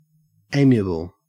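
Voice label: "amiable", Australian English; pronunciations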